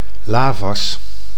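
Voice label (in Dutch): "lavas", Dutch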